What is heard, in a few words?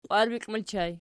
"qwarbikli", Archi